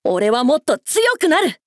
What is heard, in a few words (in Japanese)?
「俺はもっと強くなる！」, 男の子 王道系少年主人公 戦闘ボイス; レベルアップ